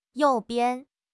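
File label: "you4 bian5", Mandarin Chinese; HSK2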